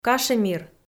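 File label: "кашемир", Komi, female; kpv audio dict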